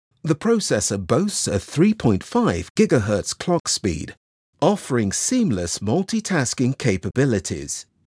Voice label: Tech Innovators Professional and Technical For Reviews